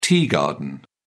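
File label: "tea garden", British English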